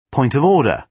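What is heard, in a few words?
"point of order", British English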